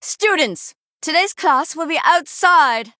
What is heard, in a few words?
Female Night Elf Play